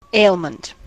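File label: "ailment", US English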